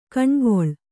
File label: "ಕಣೆಗೊಳ್", kannada